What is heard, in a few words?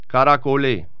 cajun french